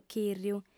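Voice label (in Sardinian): "chìrriu", female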